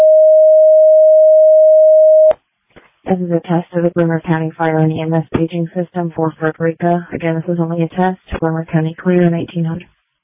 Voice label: Fire, dispatch

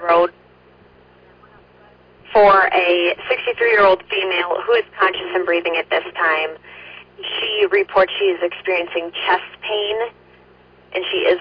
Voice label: Ambulance, dispatch; Elgin

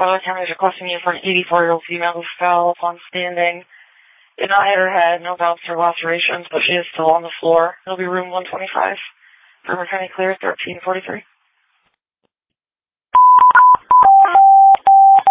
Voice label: Waverly Ambulance, dispatch

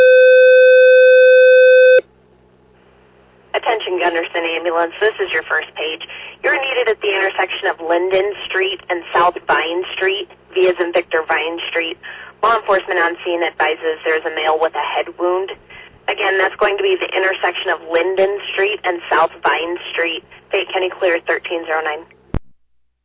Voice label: Ambulance, dispatch

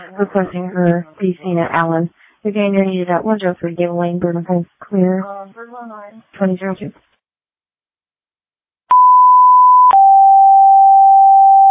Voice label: Waverly Ambulance, dispatch

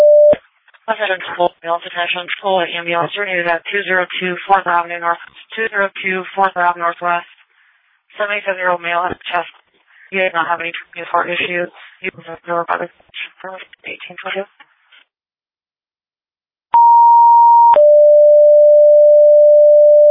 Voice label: Ambulance, dispatch

